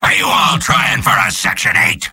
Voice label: soldier jeers; mvm